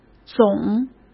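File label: "总", Mandarin Chinese; chinese-flashcards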